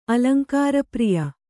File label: "ಅಲಂಕಾರಪ್ರಿಯ", kannada